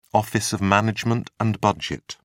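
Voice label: "office of management and budget", British English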